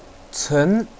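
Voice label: "cen2", Mandarin Chinese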